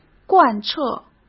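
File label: "贯彻", Mandarin Chinese; hsk; chinese flashcards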